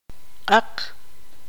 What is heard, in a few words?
tzutujil; negro